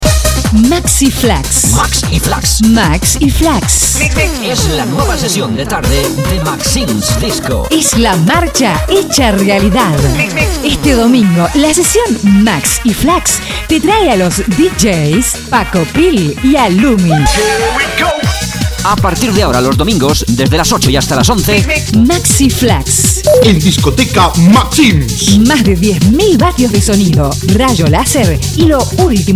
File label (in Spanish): comercial; MAXIMS